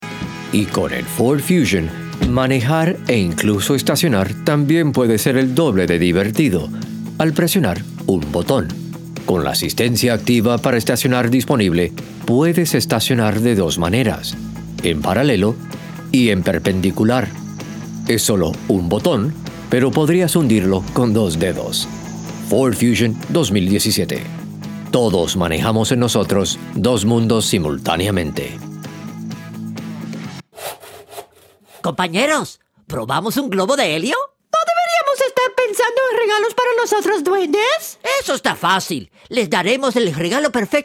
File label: Spanish; Commercial Demo; Voiceover